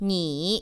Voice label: "你", Chinese

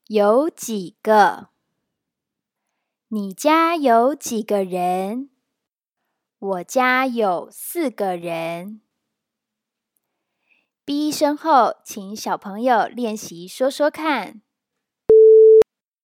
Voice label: 句型教學

